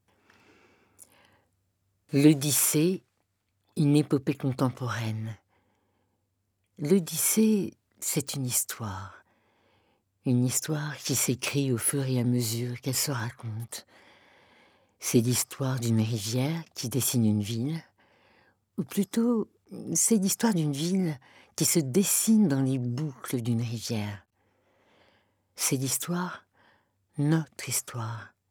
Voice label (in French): voix off teaser; odyssee